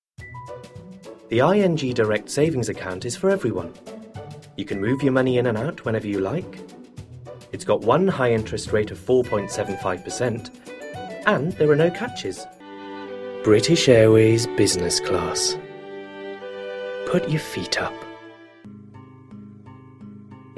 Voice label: Commercial Voice